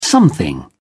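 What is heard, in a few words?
"something", British English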